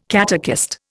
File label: "catechist", Indian